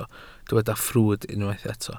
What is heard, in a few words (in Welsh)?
"ffrwd" Welsh